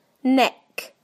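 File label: "neck", British English; PictureDictionary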